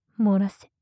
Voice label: "漏らせ", 極近, 左; マゾオスのあなたに敗北射精の快楽を叩き込む音声

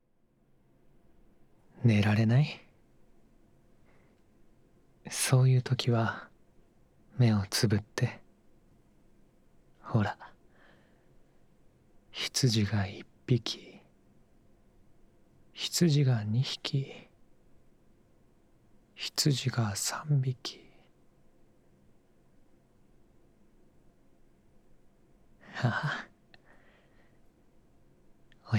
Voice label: おやすみボイス; 車の中で密着えっち〜発情した彼と狭い車内で汗だくたっぷりキスハメ〜

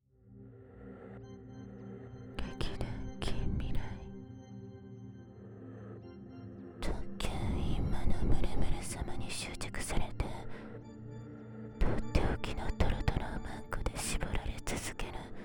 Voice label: タイトルコール; 特級淫魔のムルムル様に執着されて、とっておきのトロトロおマンコで絞られ続ける物語

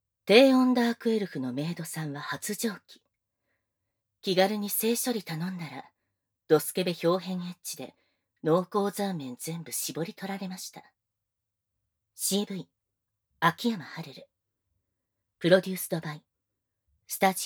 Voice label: タイトルコール, ASMR

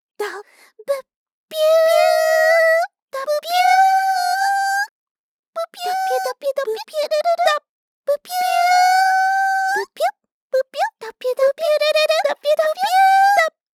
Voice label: 左右どぴゅどぴゅぶびゅぶびゅ淫語

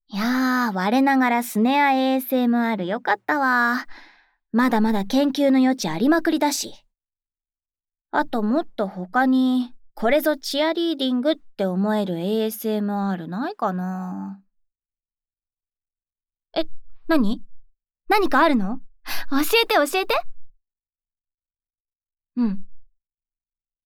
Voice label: ファイオー、ファイオー！ ～応援ASMR～; 耳元応援; おしごとねいろ 〜チアリーダー編〜

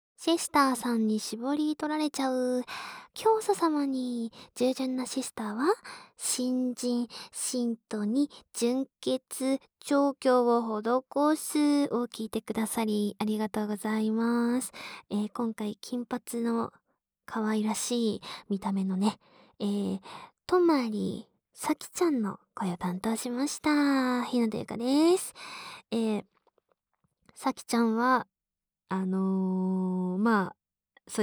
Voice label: フリートーク; シスターさんに搾り取られちゃう!～教祖様に従順なシスターは、新人信徒に純潔調教を施す～

